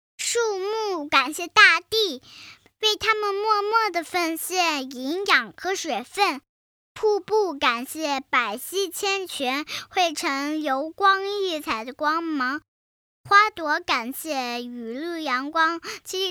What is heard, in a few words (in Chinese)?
童声 女童